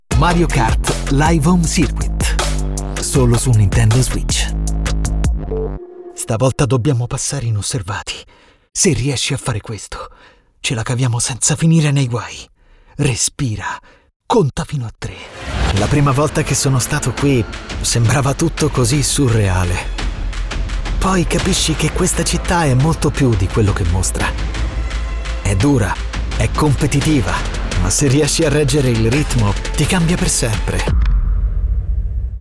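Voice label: Italian male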